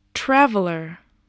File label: "traveler", voice